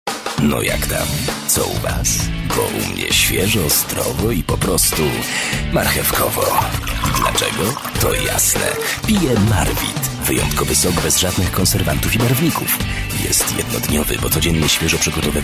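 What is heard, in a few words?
Polish, male, commercial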